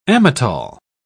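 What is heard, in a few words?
"amatol", English, British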